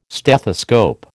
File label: "stethoscope", American